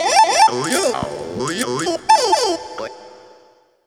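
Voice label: effects talk, Funky Vocals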